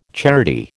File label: "charity", American